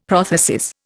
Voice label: "prothesis", Indian; Dictionary